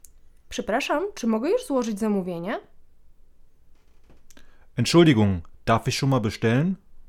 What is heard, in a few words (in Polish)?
w restauracji dialog